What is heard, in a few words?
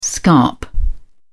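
"scarp", British English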